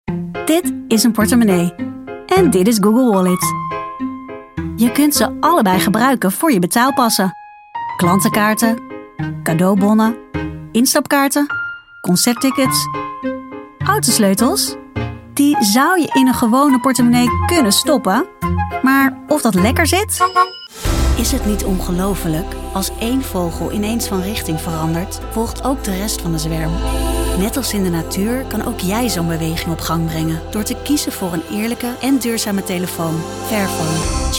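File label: Dutch voicereel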